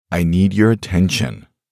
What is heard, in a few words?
man I need your attention, deep man voice; voice-ringtones